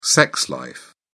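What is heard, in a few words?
"sex life", British English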